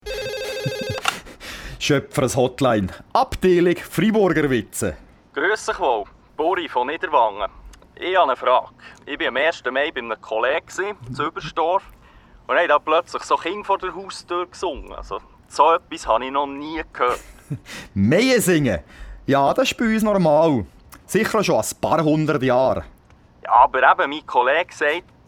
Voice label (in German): Audio Hoerspiel; Hoerspiel Meiesinge